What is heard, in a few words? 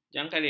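"jangkarit", Malay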